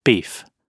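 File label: "beef", British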